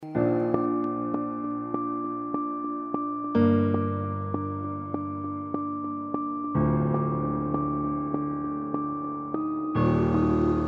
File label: rap